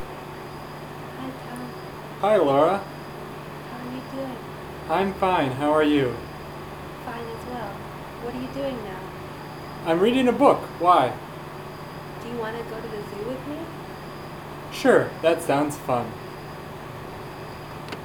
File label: Male Part